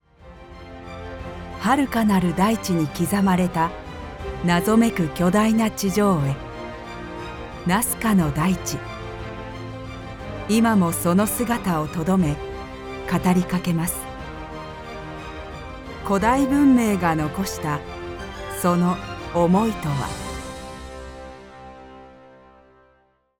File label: Documentary Epic